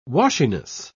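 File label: "washiness", British English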